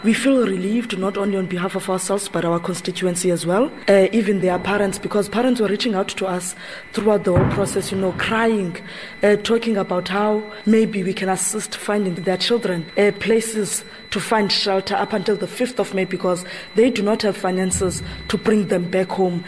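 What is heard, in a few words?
English; Court Ruling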